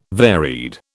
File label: "varied", British